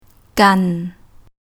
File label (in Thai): "กัน", Thai